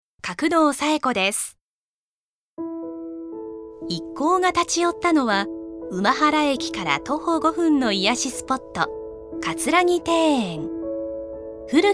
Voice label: ノーマル

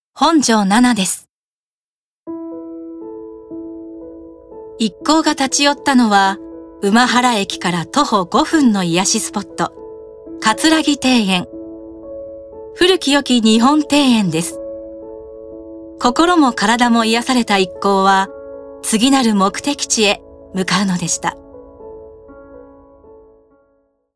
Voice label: ノーマル

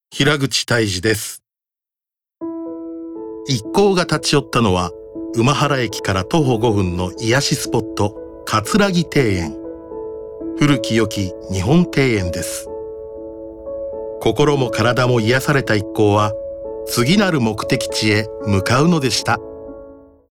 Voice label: ノーマル

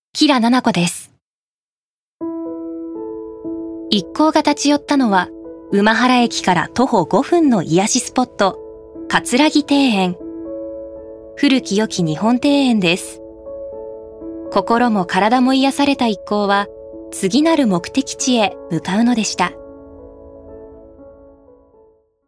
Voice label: ノーマル

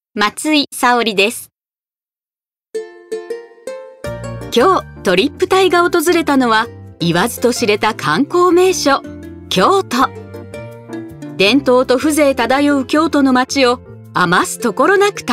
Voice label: ナチュラル

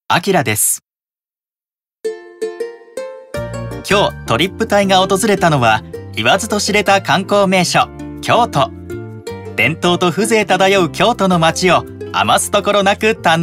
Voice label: ナチュラル

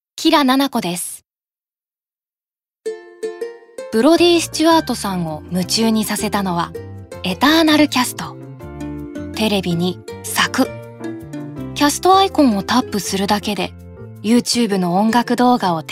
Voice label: ナチュラル

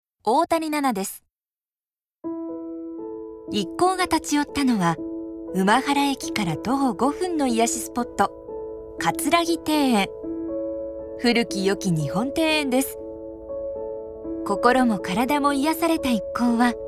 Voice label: ノーマル

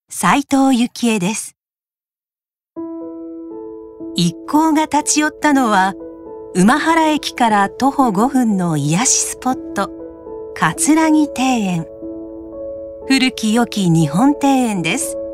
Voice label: ノーマル

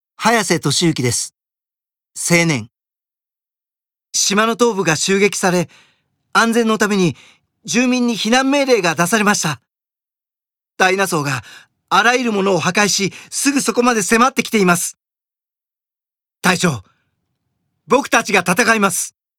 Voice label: 青年

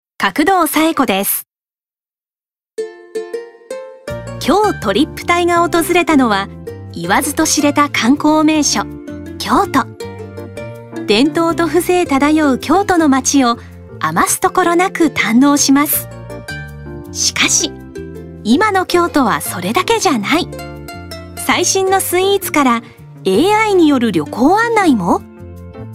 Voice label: ナチュラル

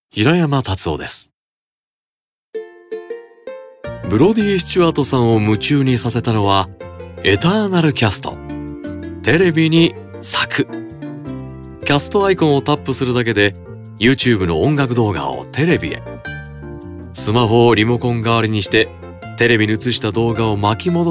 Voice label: ナチュラル

